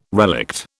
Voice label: "relict", British